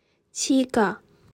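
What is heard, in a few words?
"七个", Chinese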